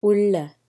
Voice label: Ulla Inside, Tamil